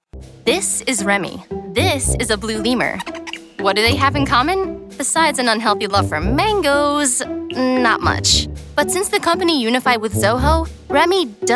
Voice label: US explainer

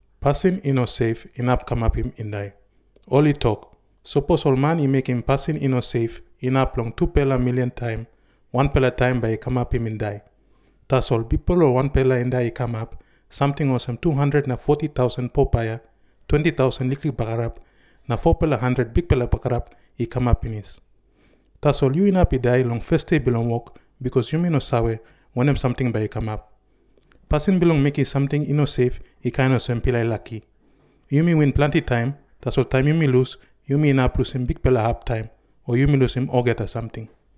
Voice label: Tok Pisin

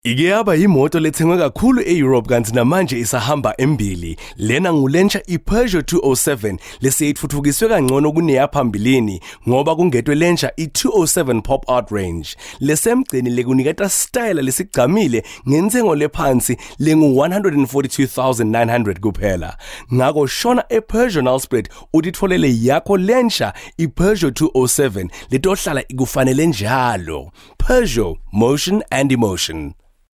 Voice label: Siswati, Male

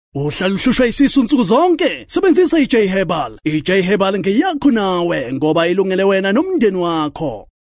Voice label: Zulu

